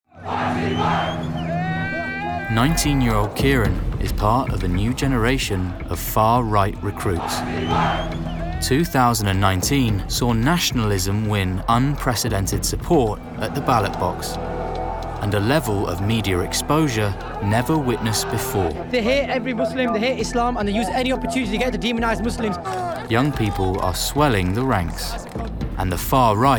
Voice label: Narration